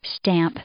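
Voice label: "stamp", English, female; noun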